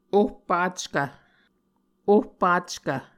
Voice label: "ohpackv", Muscogee